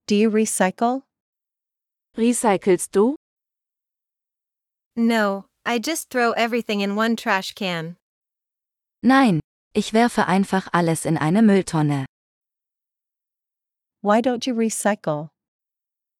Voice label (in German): Dialog mit Uebersetzungen; Recycling; nachhaltigkeit-klimawandel